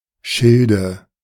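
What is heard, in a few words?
"Schilde", German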